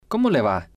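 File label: "como Le Va", spanish, male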